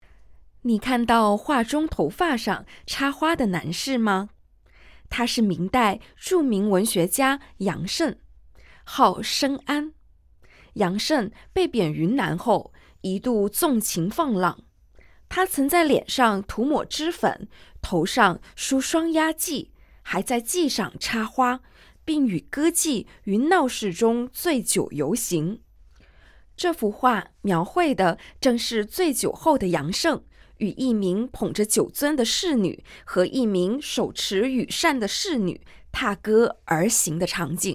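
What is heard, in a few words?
Mandarin, audio guide; stories untold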